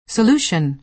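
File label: "solution", American English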